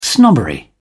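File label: "snobbery", British English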